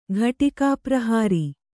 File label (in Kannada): "ಘಟಿಕಾಪ್ರಹಾರಿ", kannada